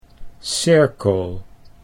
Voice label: "cirkel", Dutch